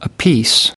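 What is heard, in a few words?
"apiece", US English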